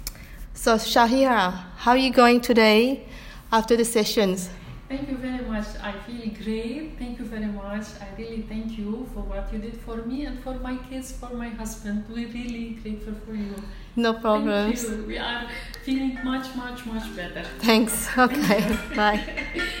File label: Testimonial